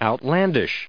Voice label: "outlandish", us

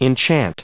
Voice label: "enchant", us

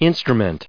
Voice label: "instruments", us

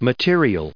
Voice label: "material", us

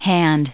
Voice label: "hand", en us

